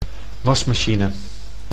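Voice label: "wasmachine", Dutch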